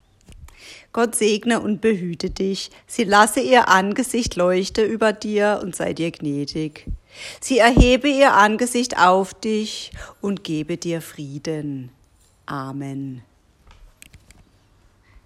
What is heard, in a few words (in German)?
deutsch badisch